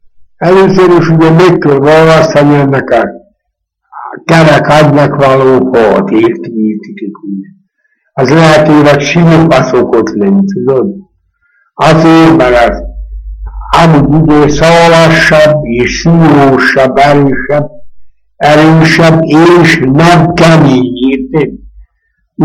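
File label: dialect collection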